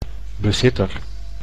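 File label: "bezitter", Dutch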